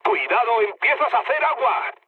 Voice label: damaged water, mood low, speech; War Thunder